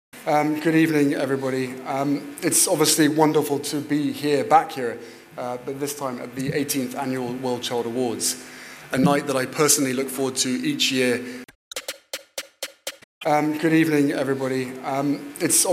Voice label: standard southern british english ssbe; The Portrait